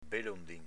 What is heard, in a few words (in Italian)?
"belondin", dialetto veneto